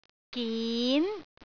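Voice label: "gin2", Cantonese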